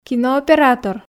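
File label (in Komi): "кинооператор", Komi, female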